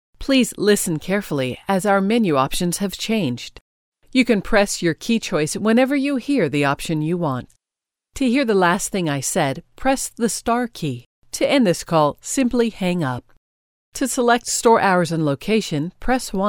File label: Phone